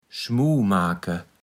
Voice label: "schmuumake", Platt

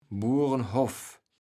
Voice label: "Burenhoff", kaevels platt

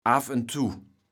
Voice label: "affontuw", kaevels platt

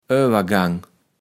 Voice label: "Öwergang", Platt

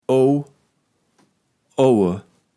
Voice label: "owowe", kaevels platt

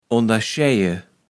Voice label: "onderscheije", kaevels platt

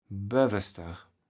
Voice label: "boewester", kaevels platt

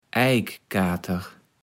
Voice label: "Eikkater", kaevels platt

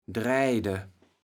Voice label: "draejde", kaevels platt

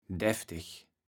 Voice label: "daefteg", kaevels platt

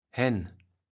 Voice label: "henn", kaevels platt

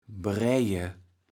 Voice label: "brej(e", kaevels platt

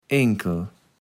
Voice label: "enkel", kaevels platt

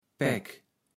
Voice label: "Paeck", Platt

